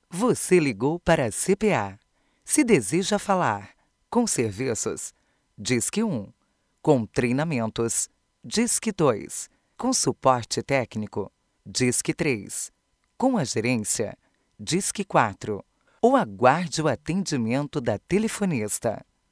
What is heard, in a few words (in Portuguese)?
Atendimento Automatico Voz